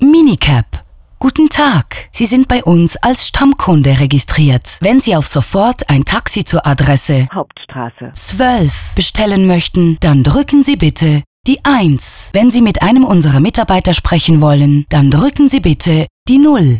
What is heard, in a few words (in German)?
MINI Ansage; minicab